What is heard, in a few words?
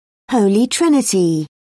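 "holy trinity", British English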